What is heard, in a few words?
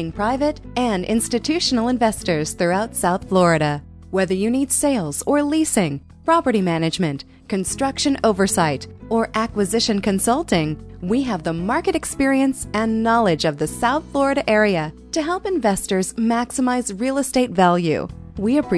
Realty Female On Hold